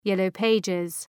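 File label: "Yellow Pages", English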